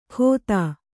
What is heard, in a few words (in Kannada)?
"ಖೋತ", Kannada